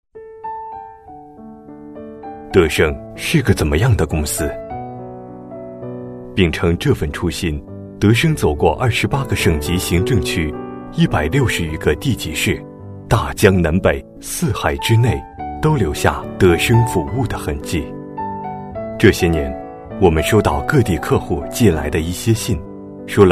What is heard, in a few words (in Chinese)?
男 企宣宣传 温暖走心; 大气沉稳